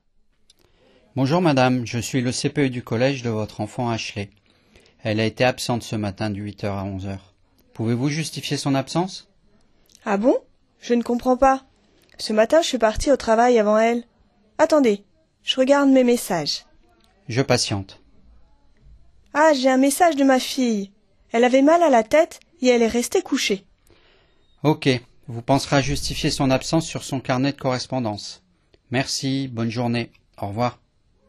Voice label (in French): conversation; cpe